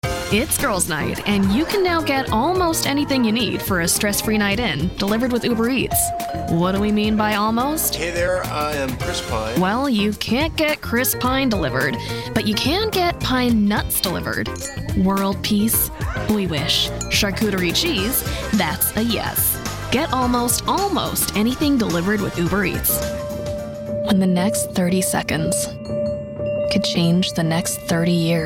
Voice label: Commercial, en-NZ; Commercial Demo Booked Work